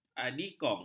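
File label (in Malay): "adikong", Malay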